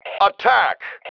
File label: ATTACK, MALE